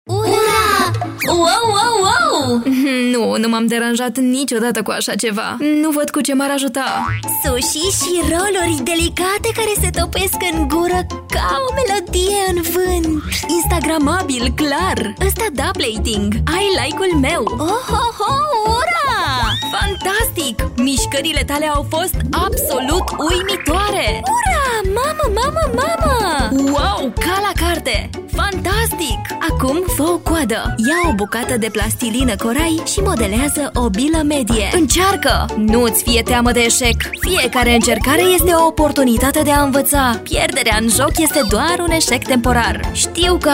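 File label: romanian impersonations, Mujer; Interpretaciones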